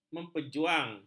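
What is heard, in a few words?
"memperjuang", Malay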